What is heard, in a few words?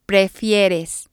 "prefieres", spanish